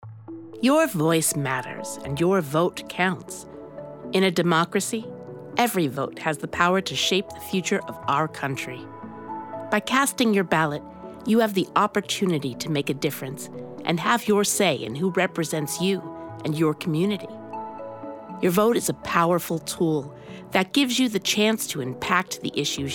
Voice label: VO PSA Voting